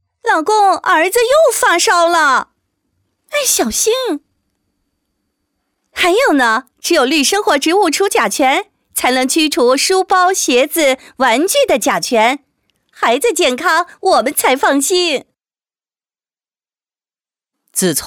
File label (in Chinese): 动画 角色 媳妇老太太